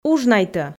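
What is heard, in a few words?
"ужнайтӧ", Komi, female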